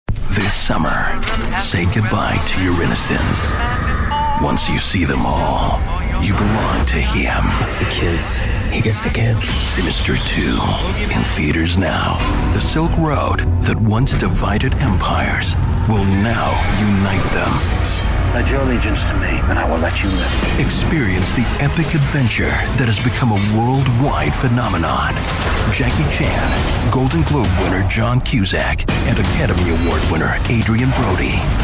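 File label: English Canadian, male, narration; voice demos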